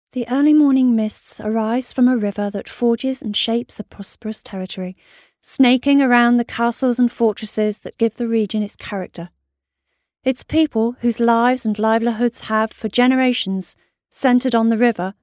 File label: locutora inglesa english british voiceover; Speaker Inglese